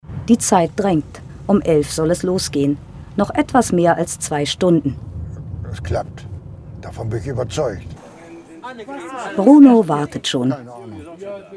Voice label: Dokumentation Kommentar; Nordreportag

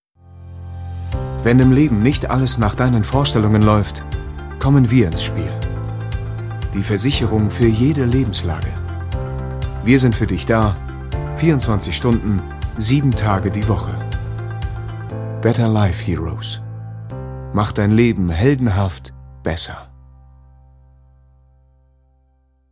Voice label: werbung versicherung musik

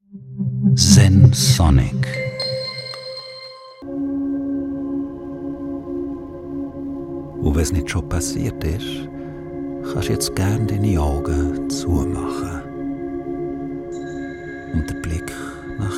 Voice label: Koerperreise Schwiizerduetsch

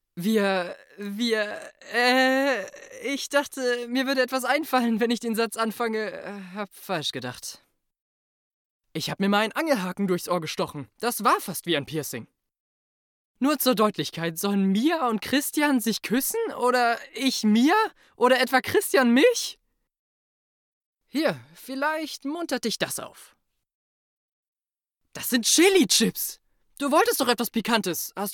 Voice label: Hoerspiel; Fussballbande

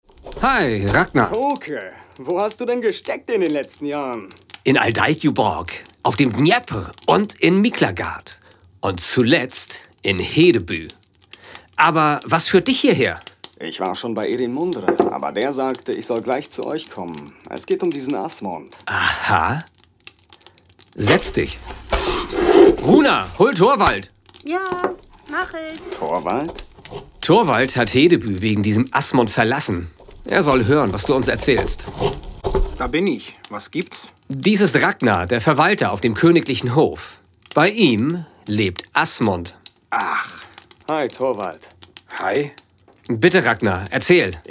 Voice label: Hoerspiel

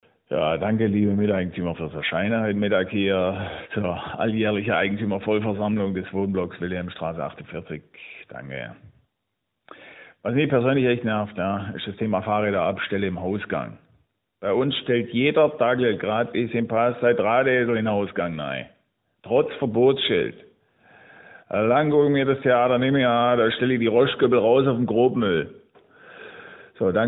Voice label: schwaebisch probe; LOFT VOICES

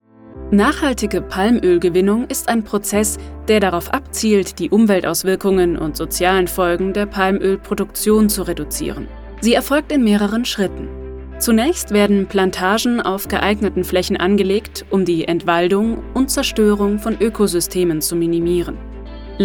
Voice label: Erklaerfilm Imagefilm

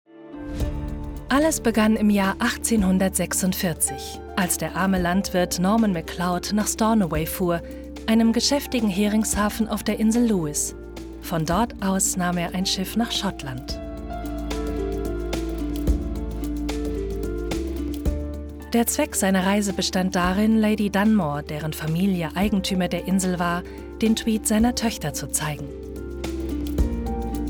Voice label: Dokumentation; Harris Tweed

